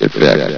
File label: "effect", vox; Source Engine; bshift